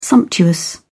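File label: "sumptuous", British English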